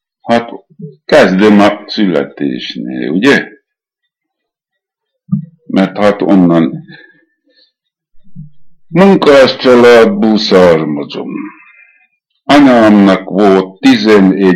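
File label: dialect collection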